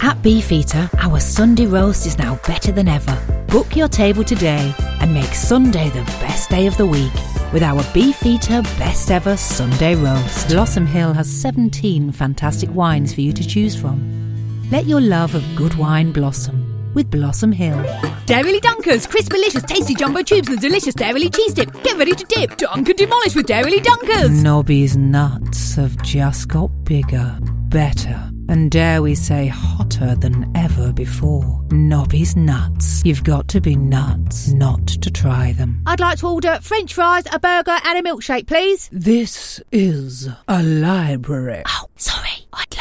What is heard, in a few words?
Commercial